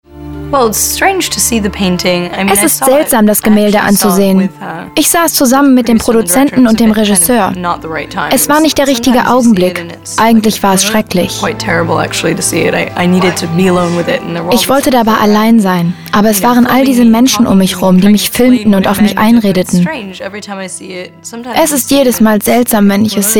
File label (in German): Voice Over